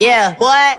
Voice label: "yeah boy", voice